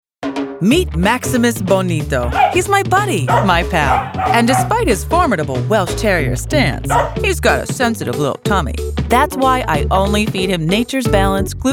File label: Girl Next Door